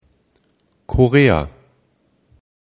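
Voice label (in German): "Korea", German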